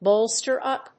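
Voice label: "bolster up", English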